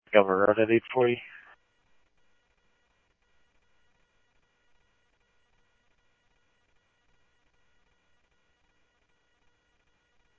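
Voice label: Simulcast PD Police Department, scanner audio trunk; Mesa Simulcast; MESA PD 1 Fiesta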